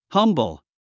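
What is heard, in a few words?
"humble", English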